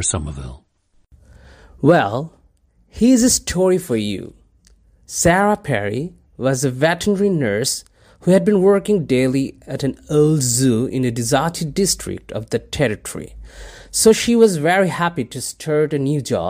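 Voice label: bangladesh, International Dialects of English Archive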